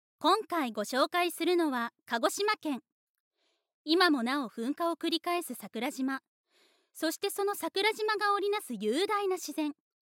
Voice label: Jimoto Shoukai Narration

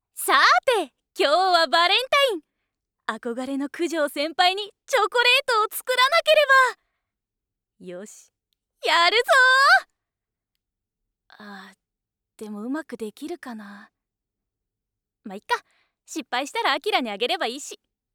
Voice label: Genkina Joshikousei

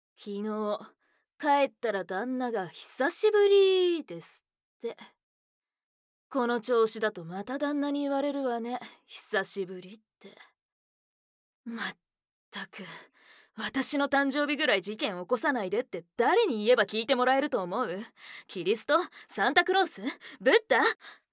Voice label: 30Dai Josei Keiji

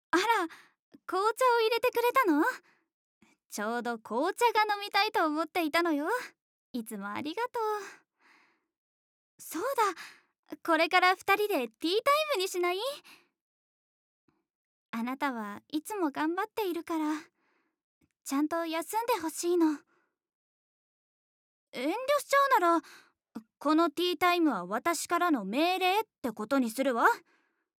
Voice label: 優しいお嬢様

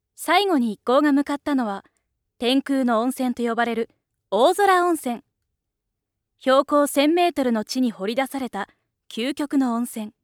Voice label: Tabi Bangumi Narration

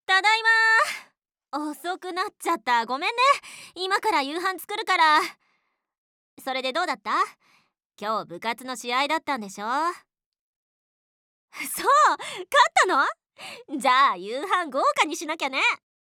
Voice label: 優しいお母さん

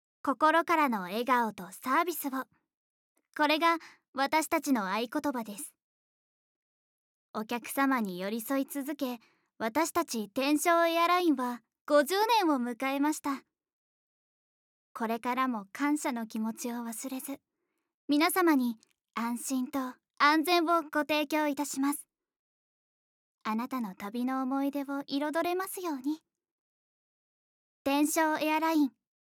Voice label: narration, ナレーション; ナレーション②

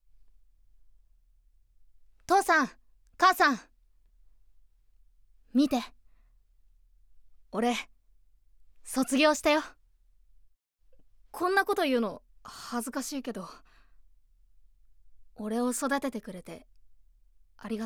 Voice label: Otonabita Shonen

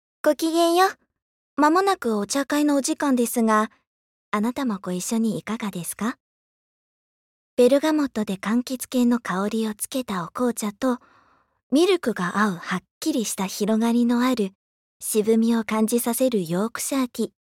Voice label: Ojousama

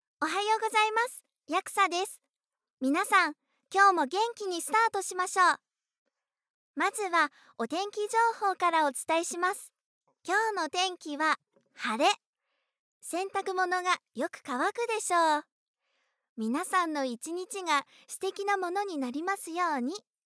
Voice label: Genki Na Otona Josei

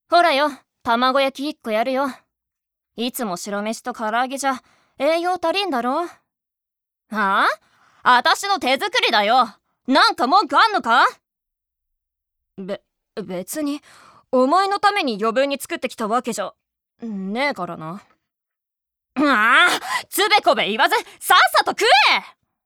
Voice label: 根は優しいツンデレ; Tsundere

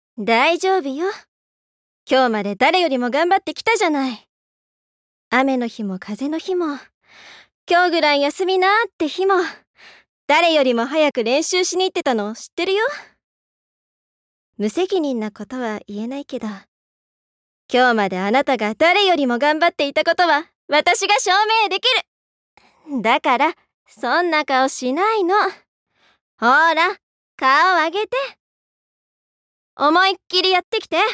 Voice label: Yasashii Onesan

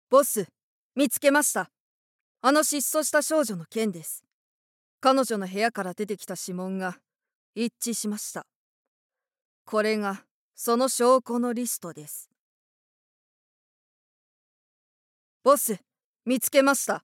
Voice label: Fukikae Josei

